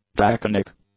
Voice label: "draconic", English; adjectives

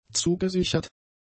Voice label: "zugesichert", German; adjectives

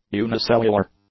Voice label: "unicellular", english; adjectives

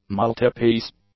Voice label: "multipiece", English; adjectives

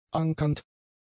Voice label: "ankernd", German; adjectives

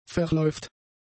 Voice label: "verläuft", German; adjectives

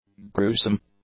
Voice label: "gruesome", english; adjectives

